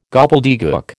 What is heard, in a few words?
"gobbledegook", American